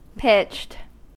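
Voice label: "pitched", American English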